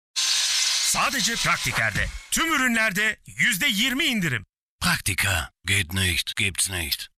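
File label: tr, Turkish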